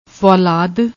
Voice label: "follad", Punjabi